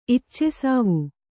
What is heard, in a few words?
"ಇಚ್ಚೆಸಾವು", Kannada